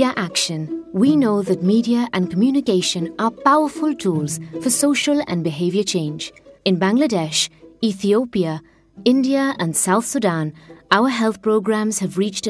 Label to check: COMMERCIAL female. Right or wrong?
Wrong